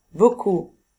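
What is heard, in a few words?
"beaucoup", French; famille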